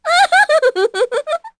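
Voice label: Happy, Korean; heroes; Shamilla; kings raid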